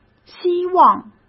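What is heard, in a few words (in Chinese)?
"希望", Mandarin Chinese; chinese flashcards; hsk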